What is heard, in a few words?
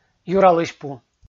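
"юралысьпу", Komi, male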